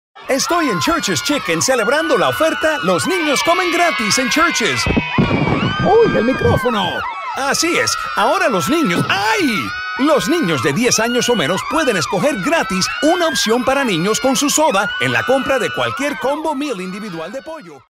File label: Newscaster